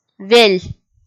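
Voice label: "вель", Komi-Zyrian, female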